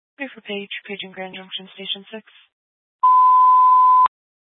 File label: Fire Department Dispatch, scanner